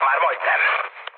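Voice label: Hungarian near waypoint mood med, speech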